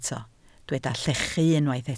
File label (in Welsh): "llechu", Welsh